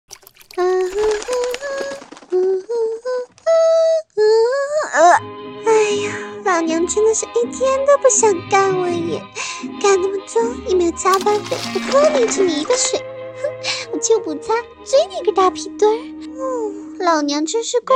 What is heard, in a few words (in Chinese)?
搞笑动画解说东北话